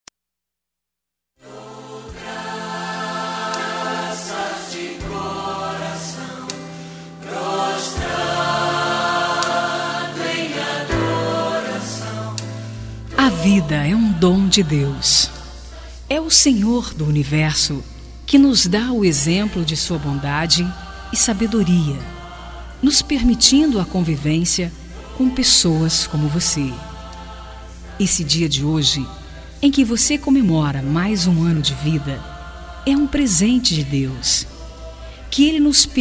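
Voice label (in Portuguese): Evangélica Aniversário Social, female, telemensagens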